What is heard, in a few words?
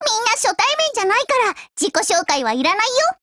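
FORMATION Japanese; MP446Mod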